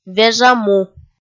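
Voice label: "вежа му", Komi, female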